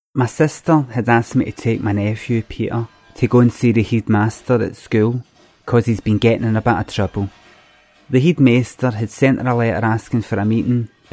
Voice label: glasgow speech